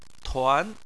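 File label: "tuan", Chinese, second tone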